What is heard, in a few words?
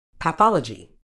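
"pathology", medical English, British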